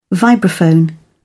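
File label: "vibraphone", British English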